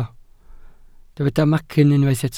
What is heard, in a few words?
"macyn", welsh